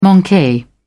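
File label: "manque", English, British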